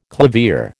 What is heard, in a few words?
"clavier", American